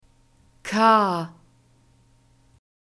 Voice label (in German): "k", German alphabet